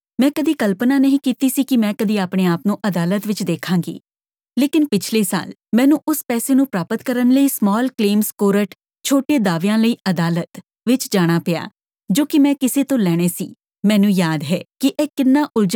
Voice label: Small Claims PSA Punjabi